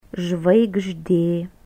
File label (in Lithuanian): "zvaigzde", lithuanian